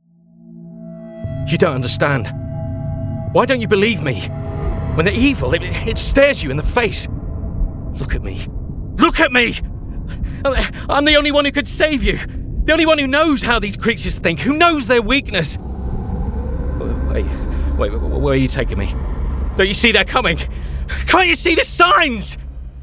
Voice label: game, game dubbing male voices international accent